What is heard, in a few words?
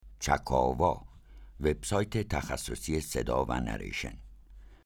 tablighati, male